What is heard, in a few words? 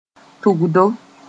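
"tʋgdo", Mooré; nests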